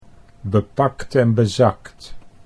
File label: "bepakt en bezakt", Dutch